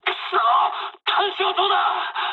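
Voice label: searchlite mood med, speech japanese; War Thunder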